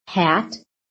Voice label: "HAT", american; PHONETIC